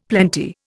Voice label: "plenty", Indian; Dictionary